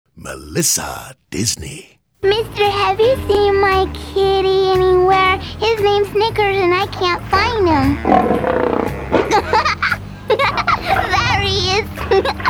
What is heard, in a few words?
Female Animation